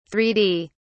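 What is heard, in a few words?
"three d", British English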